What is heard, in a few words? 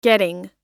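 "getting", American English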